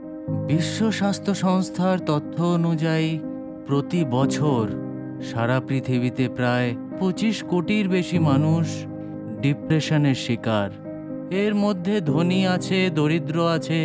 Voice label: Bengali Commercial